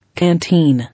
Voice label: "Canteen", English